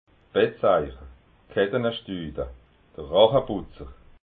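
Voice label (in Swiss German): "bettseicher", Alsatian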